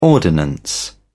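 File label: "ordinance", English, British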